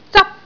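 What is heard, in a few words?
"zap1", Cantonese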